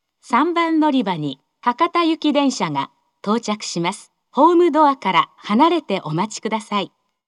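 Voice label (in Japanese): 接近-博多行, 3番のりば放送; 福岡市地下鉄 空港線・箱崎線 中洲川端駅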